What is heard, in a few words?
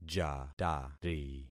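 "jatari", concatenated syllables; Affixing experiment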